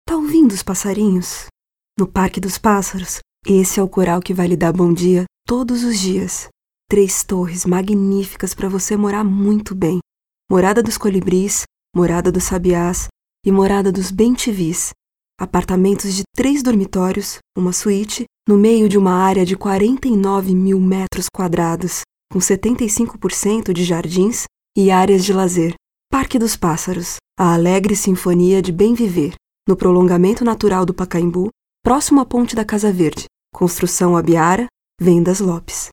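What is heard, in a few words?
Portuguese BR female Narration; voice over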